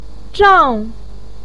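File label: "zhang4", Mandarin pinyin; 丈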